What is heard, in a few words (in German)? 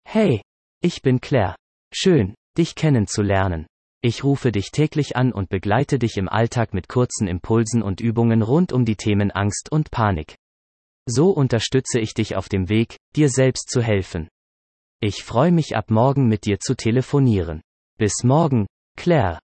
bot maennlich